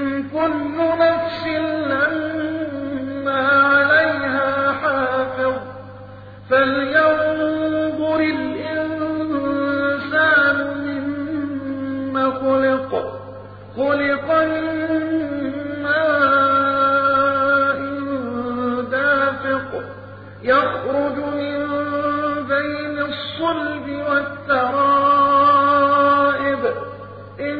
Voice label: ترتیل سوره مبارکه طارق; محمد عبدالعزیز حصان

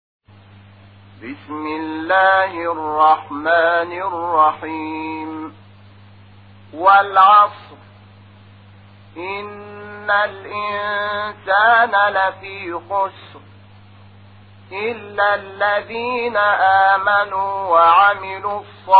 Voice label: ترتیل قرآن کریم سوره عصر; محمود شحات انور

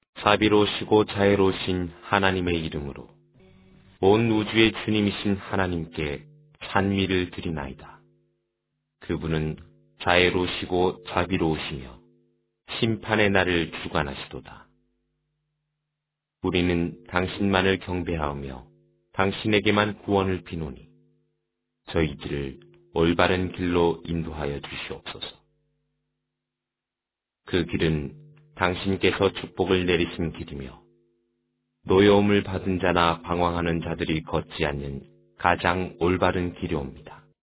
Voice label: ترجمه گویای قرآن کریم به زبان کره ای; سوره حمد

